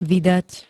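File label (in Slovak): "vydať", Slovenské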